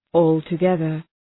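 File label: "altogether", English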